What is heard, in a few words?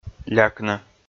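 "лякны", Komi, male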